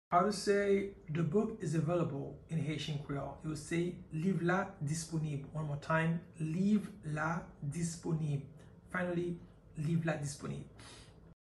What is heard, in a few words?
The book is available in Haitian Creole Liv la disponib pronunciation by a Haitian teacher